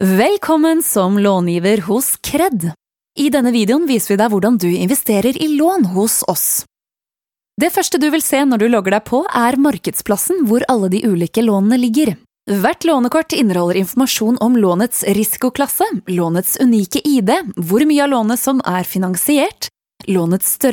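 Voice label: Norwegian female explainer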